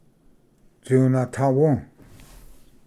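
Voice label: "tunatawu", Cherokee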